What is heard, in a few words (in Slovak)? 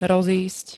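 "rozísť", Slovak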